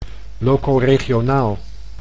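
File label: "locoregionaal", Dutch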